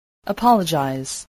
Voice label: "apologize", spelling